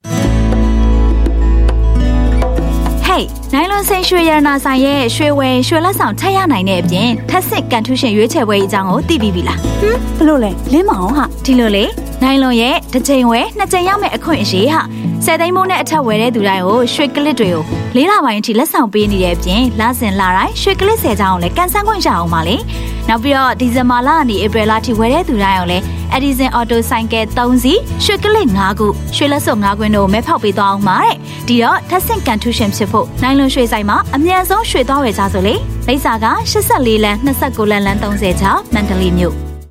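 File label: F Burmese Radio-Ad, female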